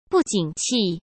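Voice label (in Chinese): "不景气", Mandarin Chinese; hsk; chinese-flashcards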